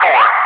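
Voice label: "2" calm, English; Wingman; DCS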